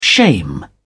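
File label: "shame", British English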